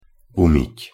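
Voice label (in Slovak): "umyť", Slovak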